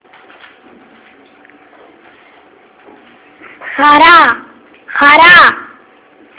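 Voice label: joy, Greek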